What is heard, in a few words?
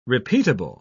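"repeatable", British English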